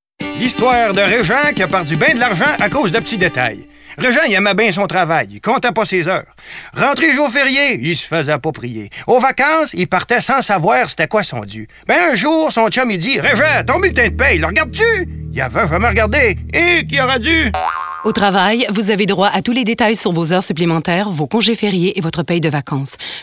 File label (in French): message radio